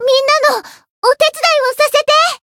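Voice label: Voice variable chant, Japanese; mana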